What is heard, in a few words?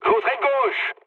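left wing, mood medium, French; War Thunder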